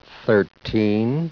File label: SPEECH ENGLISH